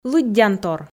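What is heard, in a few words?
"лыддянтор", Komi, female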